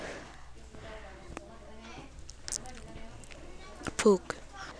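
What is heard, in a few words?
"book", spoken word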